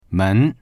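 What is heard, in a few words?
"men2", Mandarin Chinese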